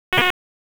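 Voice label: EMS DISP, radio; wake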